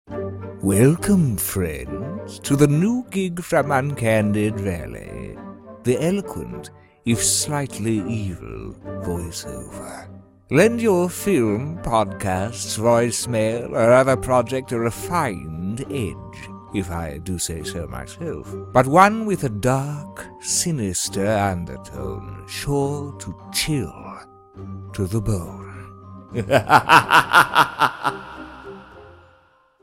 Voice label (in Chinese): 外籍英男, 恐怖角色